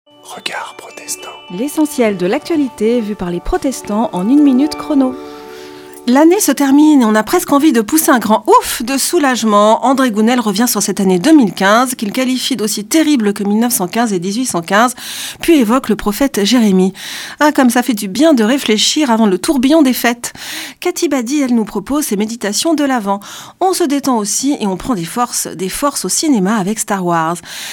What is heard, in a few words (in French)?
Spot radio; Regards protestants